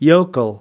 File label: "yokel", us